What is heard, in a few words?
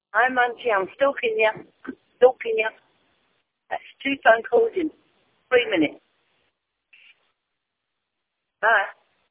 voicemail